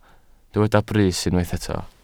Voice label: "brys", Welsh